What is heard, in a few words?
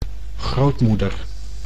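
"grootmoeder", Dutch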